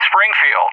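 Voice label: "Springfield", English; JTAC; Callsign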